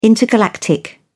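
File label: "intergalactic", English, British English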